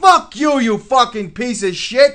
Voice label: cuss, profanity, male; kingpin

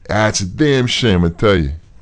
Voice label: male neutral talk; kingpin

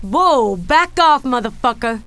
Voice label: back off, female; kingpin; beth

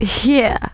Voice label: "yeah", female; kingpin; neutral

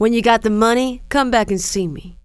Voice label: come back, female; kingpin; hiredgirl

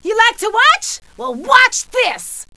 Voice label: fight, female; kingpin loc; blunt

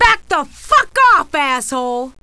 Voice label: backoff, female; kingpin; fighting

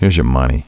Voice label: heres money, male; kingpin loc

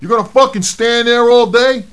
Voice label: cuss, profanity, male; kingpin

